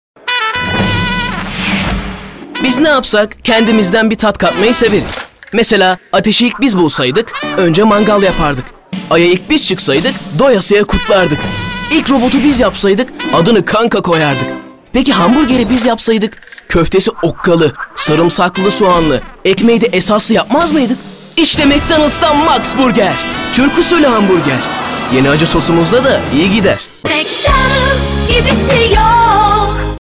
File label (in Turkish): reklam; mcdonalds